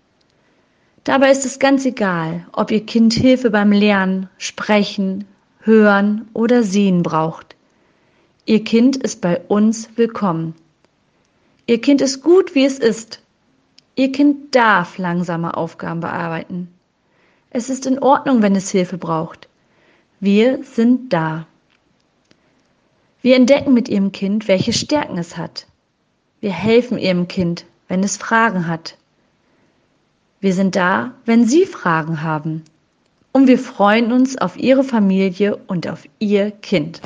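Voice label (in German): brücken bauen einfache sprache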